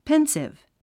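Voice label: "pensive", English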